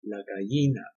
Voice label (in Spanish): "la gallina", espanol